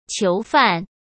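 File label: "囚犯", Mandarin Chinese; chinese flashcards; hsk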